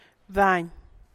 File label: type of traditional dress, San Lucas Quiaviní Zapotec; bdany; huipil antiguo